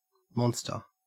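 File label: "monster", Australian English; pronunciations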